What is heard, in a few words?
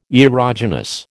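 "erogenous", American English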